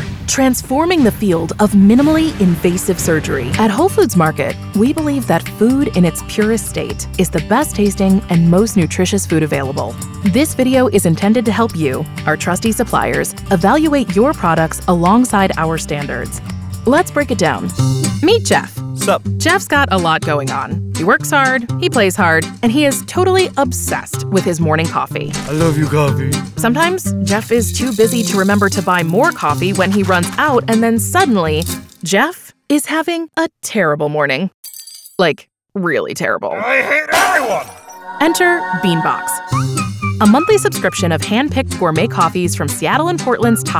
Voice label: Corporate, Female Voice Over

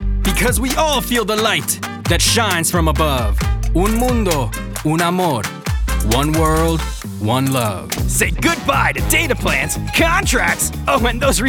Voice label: Commercial, Voice Over

